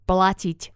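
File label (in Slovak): "platit", Slovak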